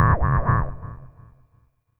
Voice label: VOICE, VOICE-FX

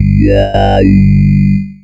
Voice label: FORMANT, Funky Vocals

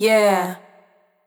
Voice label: "Yeah", vocal samples; Words

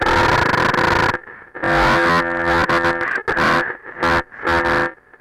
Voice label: EMS voice; Syntec; Wall of Sounds; TECHNO-BOX

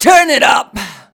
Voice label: "turn it up", shouts; Best Service; Hyper Dance

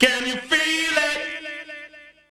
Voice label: Male FX Vocals; Houseworx

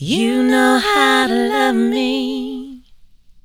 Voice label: YOU KNOW HO, Female Vocals; E-MU Producer Series Vol. 8 – Platinum Phatt

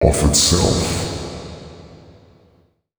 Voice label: male, voice; FX; Mutekki Media; Dance Ultra Voice VOL-1

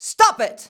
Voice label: "stop it", shouts; Best Service; Hyper Dance

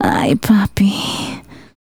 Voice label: Aye Poppi, Vocal Sound Bites; Vocal Toolbox

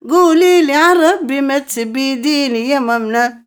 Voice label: OLD WOMAN, Arabian Traditions; USB Soundscan vol.43; AKAI

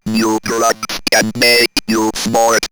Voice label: Robot New Drugs, robot vox; X-Static Goldmine

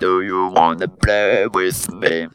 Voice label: "play with me", Funky Vocals, girls; USB Soundscan vol.18; AKAI; TB GIRLS

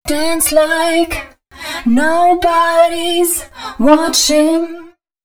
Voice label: female, F 128, Dance Ultra Voice, short hooks; Mutekki Media; Dance Ultra Voice VOL-1

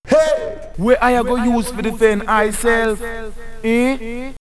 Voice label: Jamaican Ehhh?, vox; X-Static Goldmine

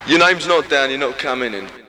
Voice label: Bouncer Vox, Jungle

